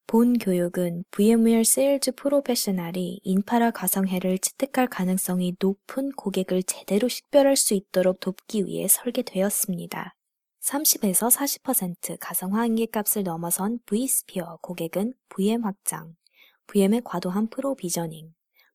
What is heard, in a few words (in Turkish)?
Korece Kadin